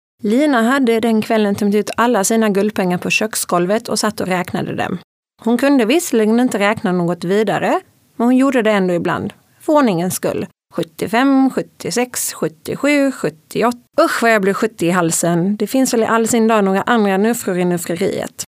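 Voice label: Swedish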